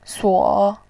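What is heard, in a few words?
"suo", Mandarin Chinese, third tone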